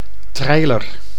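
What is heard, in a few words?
"treiler", Dutch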